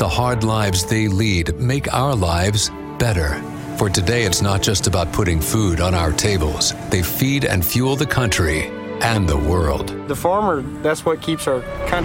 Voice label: english american narration; North American English professional, working voice actor

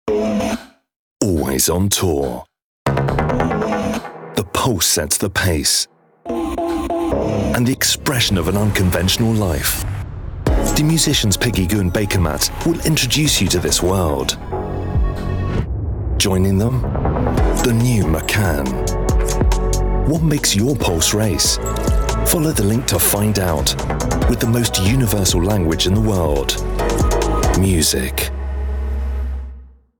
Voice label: english british automotive; britischer Vollzeitsprecher

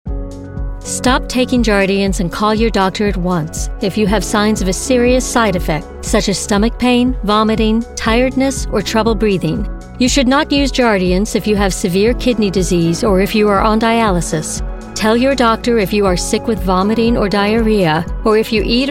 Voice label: english american medical narration

